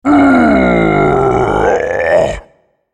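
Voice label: dying, English; Malphite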